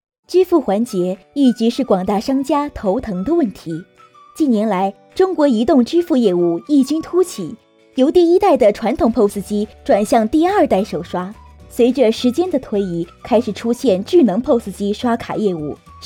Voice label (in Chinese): 女 电子商务 移动无卡支付 温柔时尚